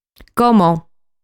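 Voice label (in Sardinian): "Como", Sardinian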